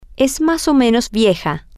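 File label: it is somewhat old, Spanish, female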